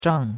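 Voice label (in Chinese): "zhang4" Chinese; Cours de Chinois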